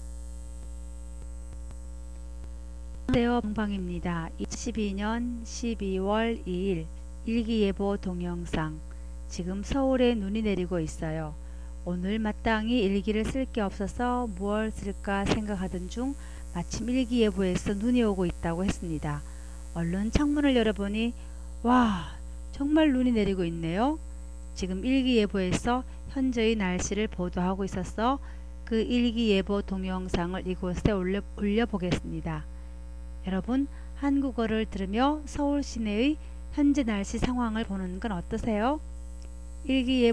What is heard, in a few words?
Korean weather forecast